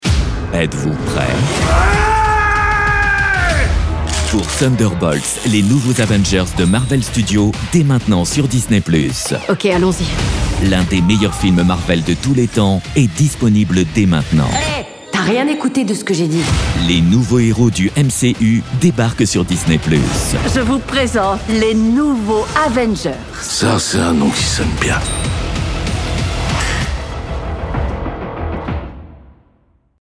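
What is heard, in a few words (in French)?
action aventure, Voix off documentaire; DISNEY+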